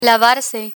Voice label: "lavarse", Spanish

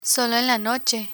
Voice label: "solo en la noche", Spanish; social history

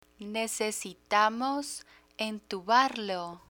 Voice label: necesitamos entubarlo; medical spanish asthma

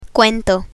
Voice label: "cuento", Spanish; spanish alphabet; learn spanish

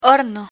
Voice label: "horno", Spanish

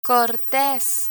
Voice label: "cortes", Spanish

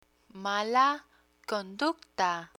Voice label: "mala conducta", Spanish; security guard terminology; learn spanish

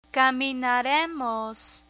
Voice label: "caminaremos", Spanish; spanish future indicative intro; learn spanish

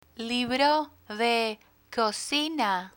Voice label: "libro de cocina", Spanish

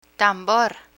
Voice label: "tambor", Spanish

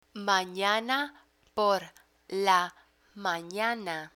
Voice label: "manana por la manana", Spanish; learn spanish

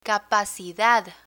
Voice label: "capacidad", Spanish; spanish moods; learn spanish

